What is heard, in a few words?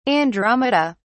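"andromeda", British English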